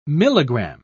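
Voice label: "milligramme", British English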